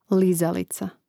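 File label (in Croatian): "lizalica", Croatian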